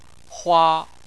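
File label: "hua", Chinese, first tone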